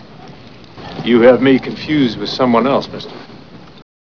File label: confused